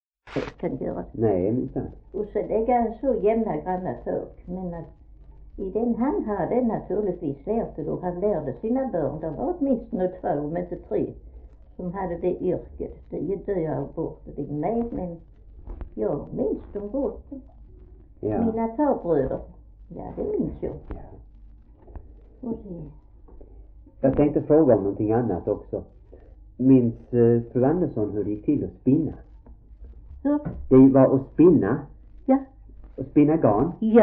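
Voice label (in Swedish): Fleninge Skåne, Svenska sagor dialekter; Folkminnen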